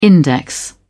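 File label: "index", British English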